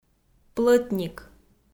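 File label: "плӧтник", Komi, female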